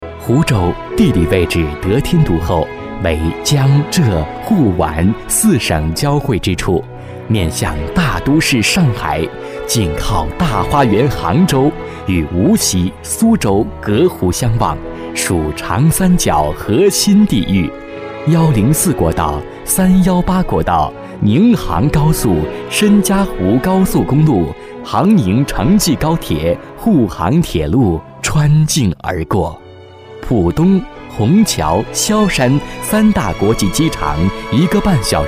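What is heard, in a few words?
Male, Chinese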